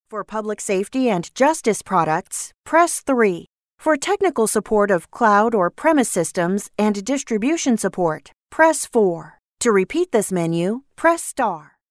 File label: IVR